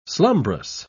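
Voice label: "slumbrous", English, British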